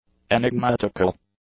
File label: "enigmatical", English; adjectives